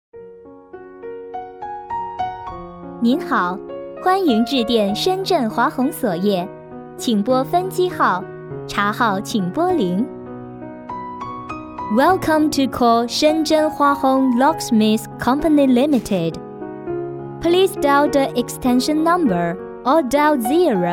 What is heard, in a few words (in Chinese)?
彩铃 中英文彩铃